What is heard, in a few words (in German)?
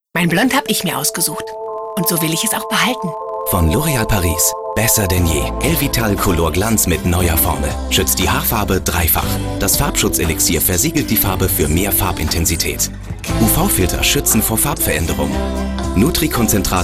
Freundlich